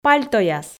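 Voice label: "пальтояс", Komi, female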